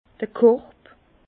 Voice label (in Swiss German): "korb", Bas Rhin; vin; panier